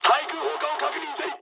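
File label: damaged aa mood high, speech japanese; War Thunder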